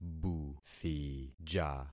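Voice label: "buthija", concatenated syllables; Affixing experiment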